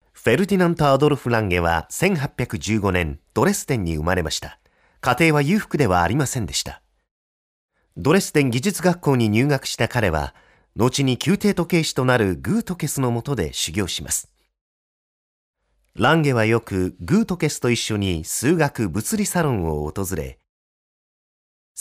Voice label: Professional Japanese Male Voice, corporate japanese